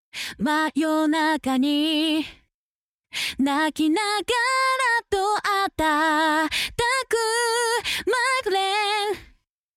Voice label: vocal double off